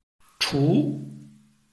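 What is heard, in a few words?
"chu", Chinese, tone 2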